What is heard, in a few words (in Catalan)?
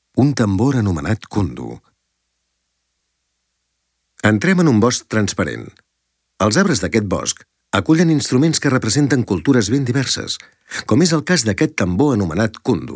audioguia catala; delamusica